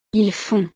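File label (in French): "ils font", French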